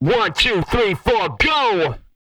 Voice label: GO, COUNTING, R&B Dance; Spectrasonics; Vocal Planet

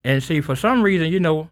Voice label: SPOKEN, Blues Soul, TALK

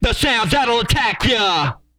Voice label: ATTACK YA, Vocal Planet, HIP-HOP; ILIO; R&B & Dance; TB HIP-HOP

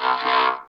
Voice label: VOCODER VOX; Masterbits - Tekkno Trax

